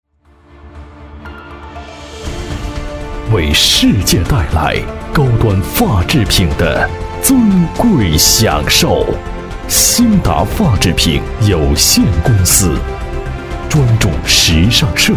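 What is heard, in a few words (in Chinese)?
国语 男声 宣传片 沉稳大气 大气浑厚磁性 沉稳; 青岛威达-装饰公司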